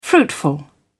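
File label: "fruitful", British English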